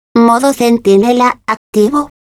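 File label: turret autosearch, Spanish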